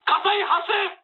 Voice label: on fire mood high, speech jp; War Thunder